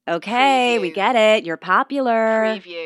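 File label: Woman Says You're So Popular Sarcastic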